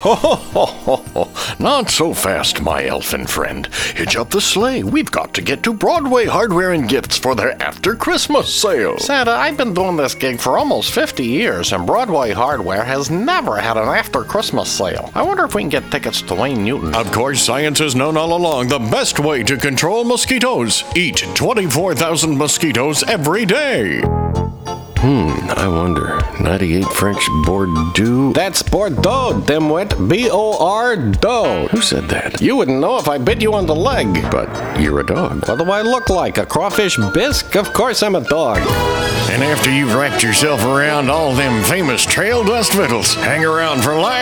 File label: Character